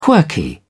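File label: "quirky", English, uk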